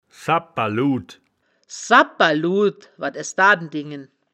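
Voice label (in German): "sabperlud", wittlicher woerterbuch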